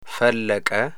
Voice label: "fallaka", Amharic

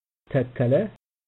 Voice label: "takala", amharico

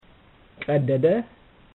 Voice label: "qeddede", amharico

